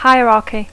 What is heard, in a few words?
"hierarchy", English; Dacco